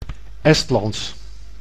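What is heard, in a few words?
"Estlands", Dutch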